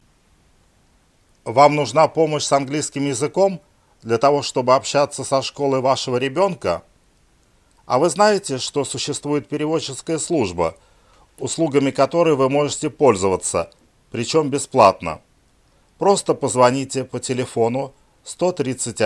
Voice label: russian; public schools; going to a public school